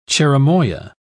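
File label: "cherimoya", British English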